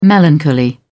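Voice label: "melancholy", British English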